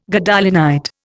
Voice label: "Gadolinite", Indian